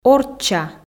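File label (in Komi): "орчча", Komi, female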